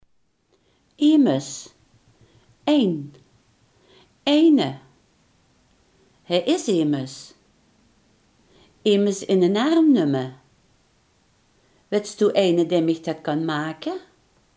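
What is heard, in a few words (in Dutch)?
iemand, Limburgse gesproken woordenboeken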